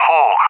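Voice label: "Hawg", English, UK; CallSign; DCS; Allied Flight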